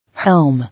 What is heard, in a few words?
"Helm", English